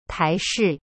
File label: "台式" tai shi, chinese